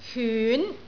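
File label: "hyun2", Cantonese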